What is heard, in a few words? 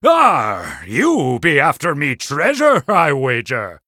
Male Human Pirate Day